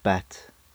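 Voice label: "bat", English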